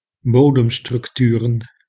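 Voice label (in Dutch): "bodemstructuren", Dutch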